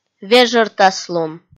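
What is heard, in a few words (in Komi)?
"вежӧртаслун", Komi, female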